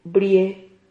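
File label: "bie", Spanish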